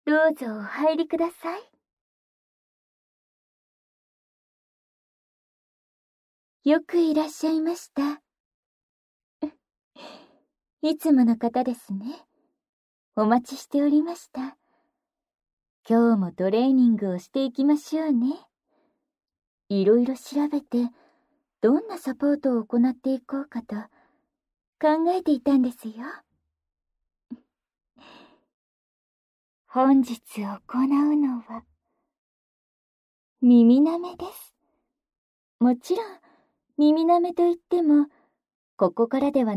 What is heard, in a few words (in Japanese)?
耳舐め 効果音なし, ASMR; 耳舐め、お好きですか？; チクニー断ちしたい僕を助けてくれるシスターさんのオナサポ&ラブラブえっち